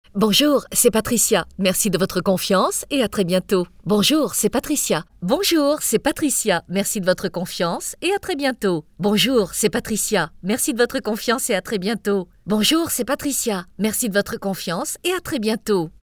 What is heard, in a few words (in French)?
phrase casting voix VOIX OFF; abstudio